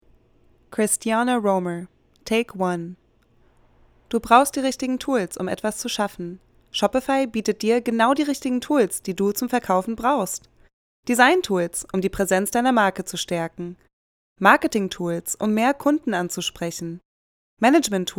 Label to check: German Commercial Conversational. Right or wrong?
Right